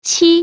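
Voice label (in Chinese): "七", Chinese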